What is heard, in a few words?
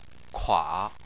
"kua3", Chinese